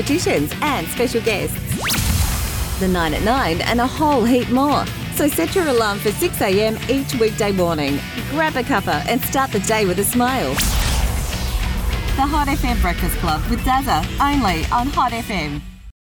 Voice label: english australian radio imaging